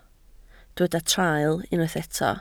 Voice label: "traul", Welsh